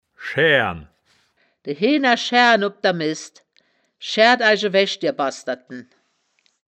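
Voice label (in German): "schaeaeren", Wittlicher Wörterbuch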